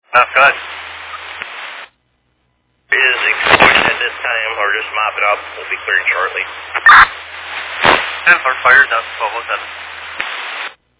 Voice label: Fire Dispatch

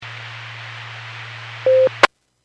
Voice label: Skywarn 146.67; Stevens Point

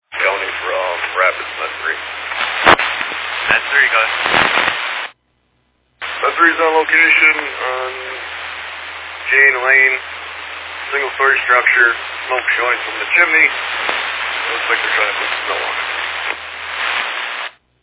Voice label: Fire Dispatch

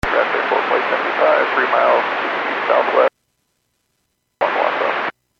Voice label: Airports 122.7, Radio; KSTE

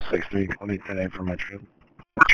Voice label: Police Sheriff Dispatch

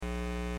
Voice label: Aviation 122.7; KSTE

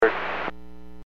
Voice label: Aviation 121.5 Emergency

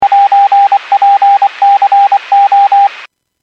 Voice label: Skywarn 146.67; Stevens Point

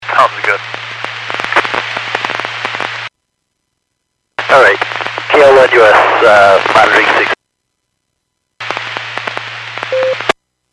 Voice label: Skywarn 146.67; Stevens Point

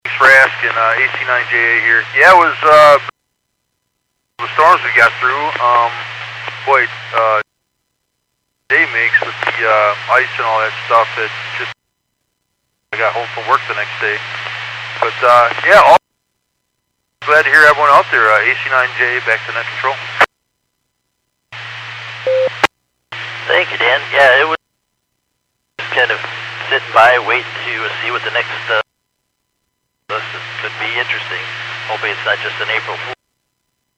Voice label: Skywarn 146.67; Stevens Point

